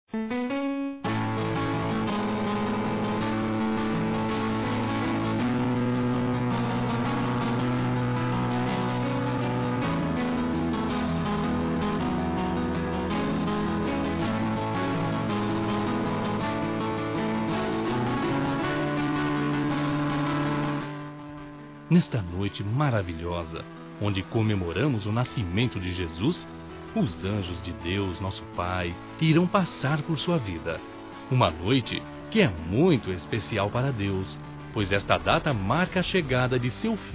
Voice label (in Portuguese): NATAL NEUTRO M